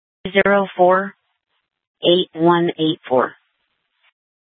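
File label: Police Department North Dispatch, scanner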